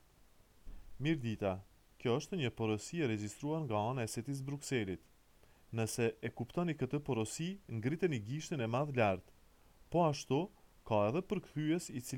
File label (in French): Albanais